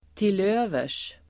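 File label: "till övers", Swedish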